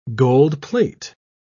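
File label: "gold-plate", British English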